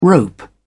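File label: "rope", British English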